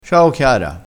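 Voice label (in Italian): "scorbutio", dialetto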